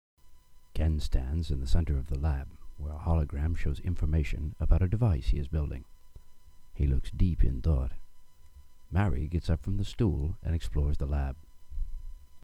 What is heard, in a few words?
radio narrator; voiceover